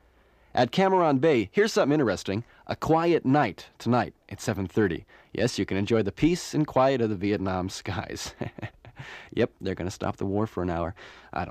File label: Radio Spot: Red Cross Recreation Schedule, And Station Id; Mono